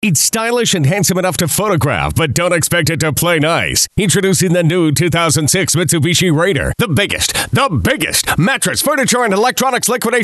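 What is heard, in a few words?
english american male voice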